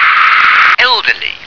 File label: "elderly", English